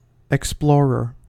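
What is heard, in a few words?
"explorer", American English; pronunciations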